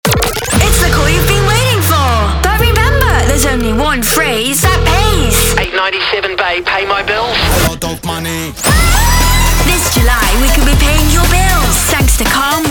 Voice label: Imaging; VOICEFOX